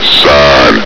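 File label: "sign", male; hl2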